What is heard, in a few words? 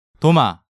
"Doma", Korean